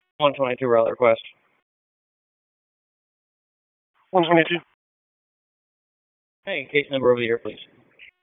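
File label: Police District Dispatch; Raleigh; Southwest; wake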